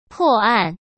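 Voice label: "破案", Mandarin Chinese; hsk; chinese-flashcards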